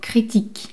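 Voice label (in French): "critique", French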